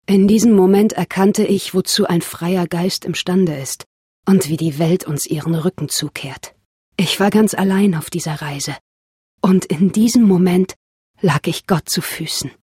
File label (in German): Off Serious